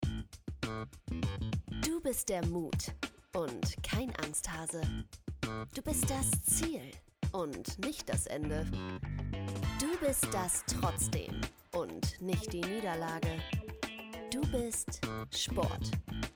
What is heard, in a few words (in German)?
Werbung Sport; LOFT VOICES